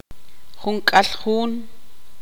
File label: tzutujil; veinti no